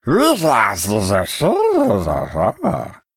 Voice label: Male Murloc Well Played, zh